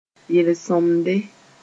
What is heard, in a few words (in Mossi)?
"yel-sõmde", moore